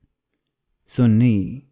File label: "Sunni", Arabic; Islam